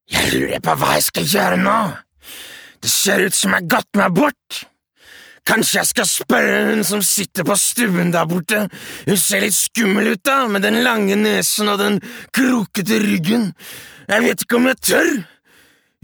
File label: no character bad guy